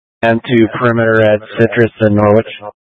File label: Mutual Aid-Intersystem Interop B, scanner